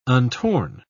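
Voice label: "untorn", British English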